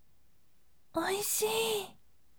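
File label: "oishii", gentle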